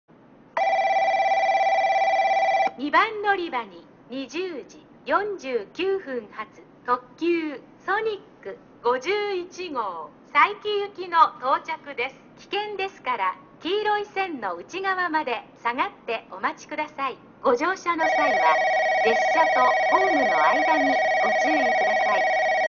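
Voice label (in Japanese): 2番のりば接近放送, 中津駅; ソニック51号 佐伯行き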